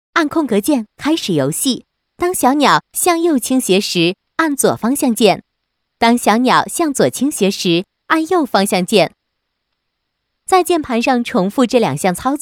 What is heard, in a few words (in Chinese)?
女 小鸟行走